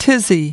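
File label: "tizzy", US English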